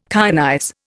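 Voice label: "kyanize", Indian English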